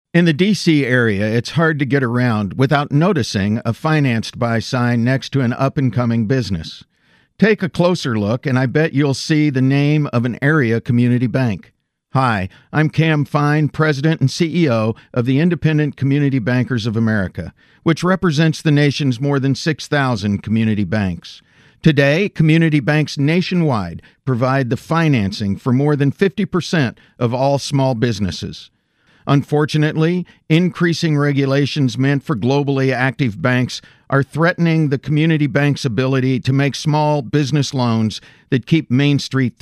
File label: radio ad; red tape